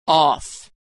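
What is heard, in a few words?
"off", American English; vowel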